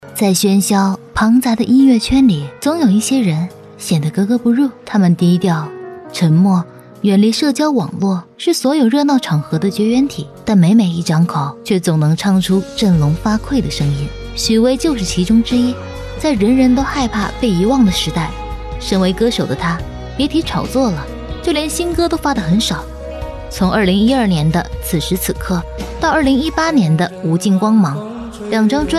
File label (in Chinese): 旁白音樂類介紹